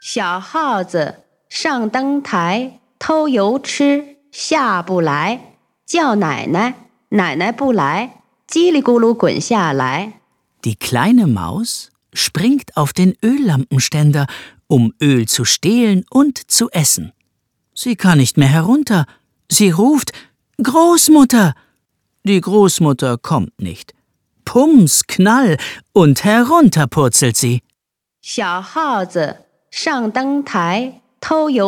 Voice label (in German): Chinesisch, kinderverse